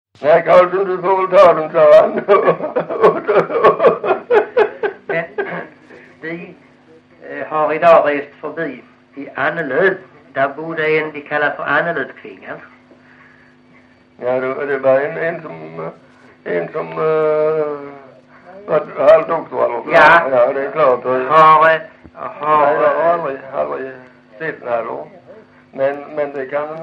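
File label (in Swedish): Lilla Harrie Skåne, Svenska sagor dialekter; Folkminnen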